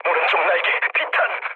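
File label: Korean right wing mood low; speech; War Thunder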